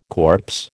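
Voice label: "corpse", American English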